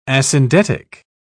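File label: "asyndetic", British English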